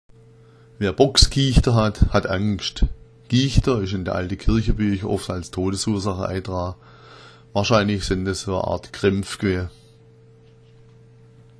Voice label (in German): boxgichter, schwaebisch